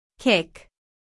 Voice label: "kick", en